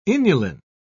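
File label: "inulin", English, uk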